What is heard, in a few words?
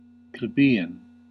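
"plebeian", American English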